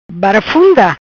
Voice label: "Barafunda", Macanese; Confusão, vozearia; Confusion, shouting